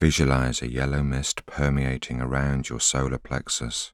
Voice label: voiceover